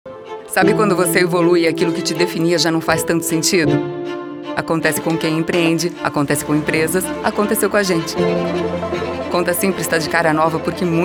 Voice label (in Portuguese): Conversado Natural Coloquial; Conta Simples